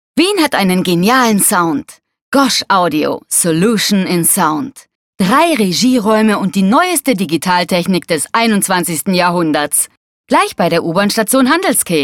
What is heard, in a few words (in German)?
W plakativ